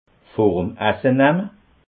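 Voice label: "vorem", Alsatian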